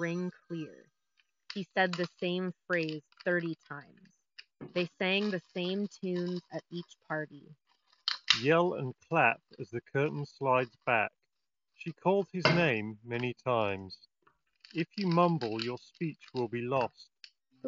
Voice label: Office microphone sample; Skullcandy Hesh ANC

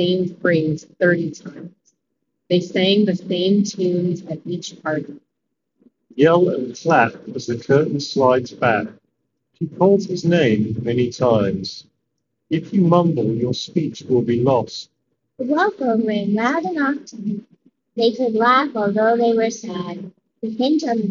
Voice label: Sony WF1000 XM5 Wind microphone sample

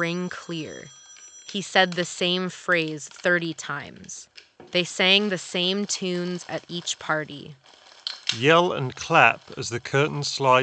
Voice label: Office microphone sample; Logitech G335

